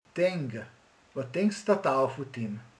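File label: "gondol", Hungarian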